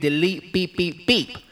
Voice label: "delete beep beep beep", voice; ooh ahh